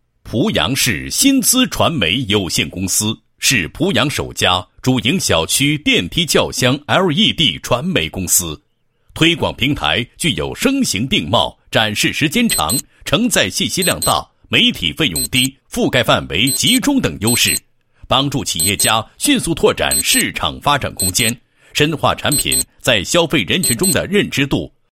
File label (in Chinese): 男 宣传广告 宣传简介; 濮阳市新姿传媒公司